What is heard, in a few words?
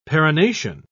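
"perennation", British English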